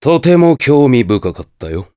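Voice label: Vox Victory, Japanese; Nicx; kings raid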